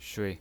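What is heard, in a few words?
"shui", Mandarin Chinese pinyin, neutral tone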